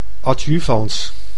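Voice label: "adjuvans", Dutch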